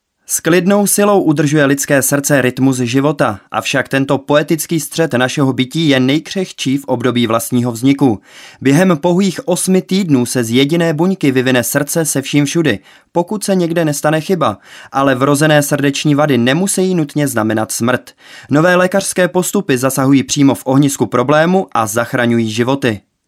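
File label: male Czech documentary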